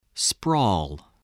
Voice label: "sprawl", voice